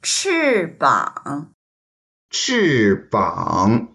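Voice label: "chi4bang3", Mandarin Chinese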